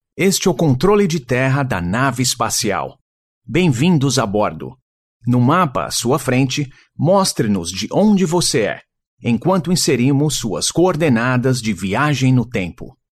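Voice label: Brazilian Portuguese, male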